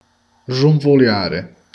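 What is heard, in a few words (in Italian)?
"russare", Italian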